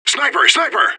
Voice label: radio bot under fire sniper; fbihrt

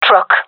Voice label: truck, gendarmerie fem; player vo

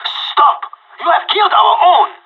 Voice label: killed friend, pirate; Counter Strike Model Pack; CS:GO

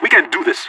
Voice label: pep talk; Counter Strike Model Pack; CS:GO; leet

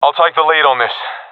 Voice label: request follow me, professional epic; vo; Counter Strike Model Pack; CS:GO

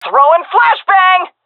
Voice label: throwing flashbang, vo fem; agents; Source Model Packs; Counter Strike Model Pack; CS2

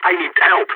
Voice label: help, balkan; vo

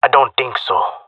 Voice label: disagree, jungle male; agents

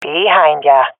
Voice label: following friend, swat fem; vo; Counter Strike Model Pack; CS:GO

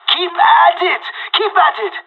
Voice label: pep talk; Counter Strike Model Pack; CS:GO

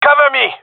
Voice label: request cover me, gendarmerie male

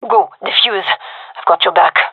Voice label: cover defuse, gendermerie fem; vo

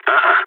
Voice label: negative, balkan; vo

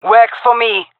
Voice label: agree, jungle fem epic; vo; agents; Source Model Packs; Counter Strike Model Pack; CS2

